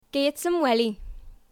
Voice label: Geordie, accents of english